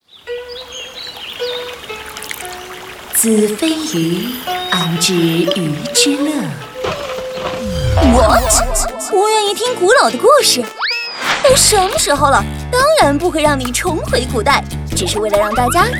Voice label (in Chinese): 女 广告 搞怪俏皮